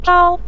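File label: "zhao" neutral tone, Mandarin Chinese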